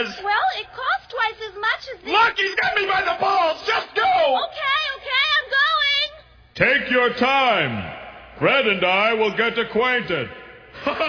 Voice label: Toilet Tissue Commercial; Husky Boy